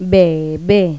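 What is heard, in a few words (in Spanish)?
"bebe", Spanish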